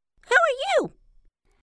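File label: "how are you", English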